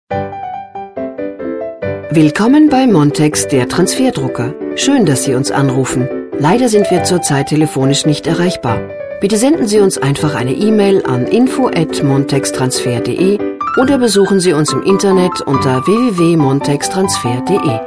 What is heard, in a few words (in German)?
anrufbeantworter; montex